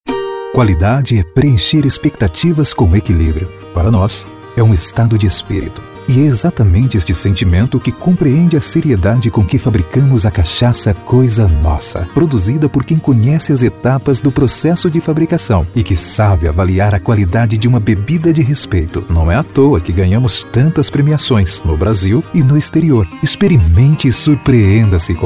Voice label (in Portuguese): SUAVE PADRÃO